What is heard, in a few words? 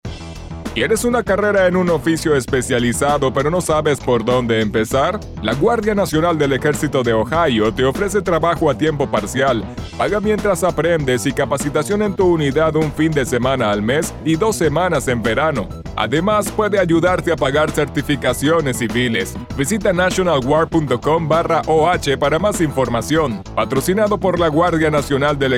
SPAN; Skilled Trades; ohio army national guard